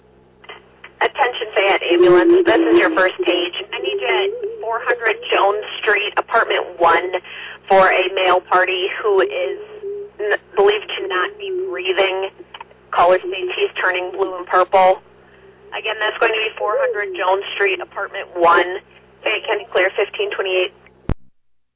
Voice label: Ambulance, dispatch